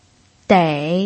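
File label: "dei" third tone, Mandarin Chinese pinyin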